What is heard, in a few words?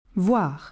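"voir", French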